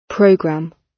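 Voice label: "program", British English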